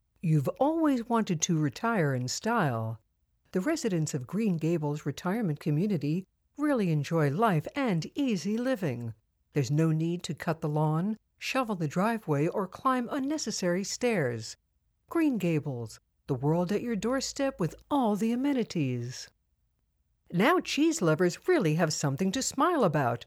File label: dry voice; Voice Realm